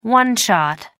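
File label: "one-shot", English, British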